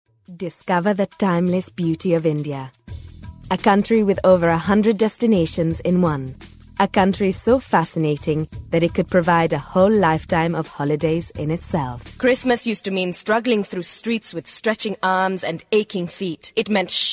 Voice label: English Indian; accent of india